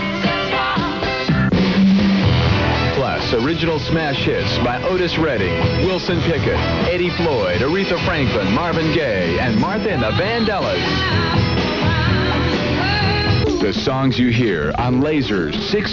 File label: commercial Top tracks